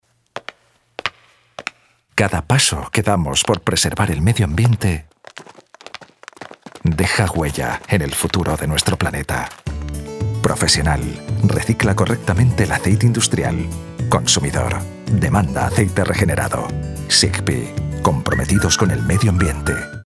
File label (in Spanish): Cuña